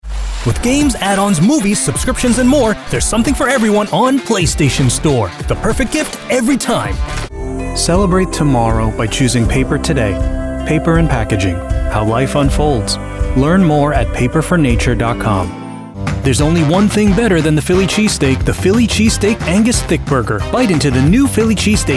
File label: millennial young male voice over